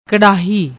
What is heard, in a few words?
"krrahi", Punjabi; ਕੜਾਹੀ